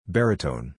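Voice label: "baritone", English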